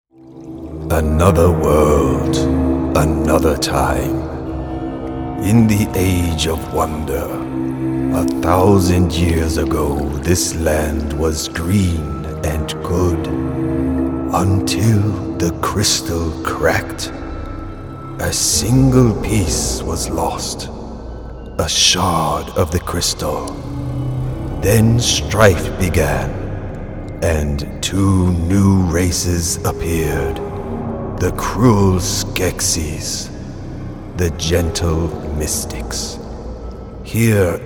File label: Character; Dark Crystal